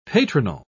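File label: "patronal", British English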